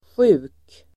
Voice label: "sjuk", Swedish

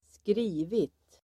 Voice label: "skrivit", Swedish

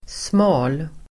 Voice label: "smal", Swedish

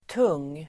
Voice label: "tung", Swedish

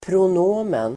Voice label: "pronomen", Swedish

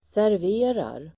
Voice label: "serverar", Swedish

